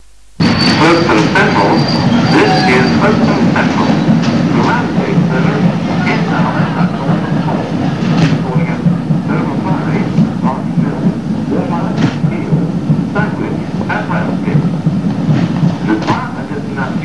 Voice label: folkestone central pre recorded platform announcement; year 1988